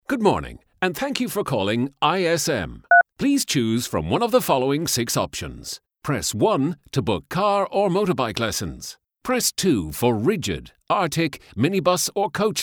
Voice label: english irish ivr